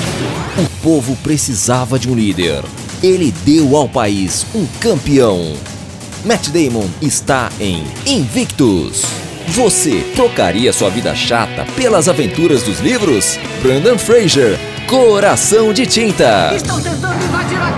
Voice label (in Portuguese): CHAMADA FILMES